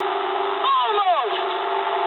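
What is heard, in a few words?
bomb failure mood high, speech English; War Thunder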